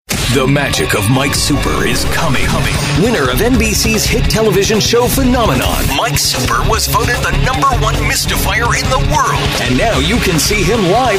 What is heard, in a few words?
english international promos; Voz masculina; neutral en inglés norteamericano; sin acento regional